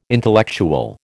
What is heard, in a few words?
"intellectual", American